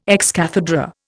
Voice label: "ex cathedra", Indian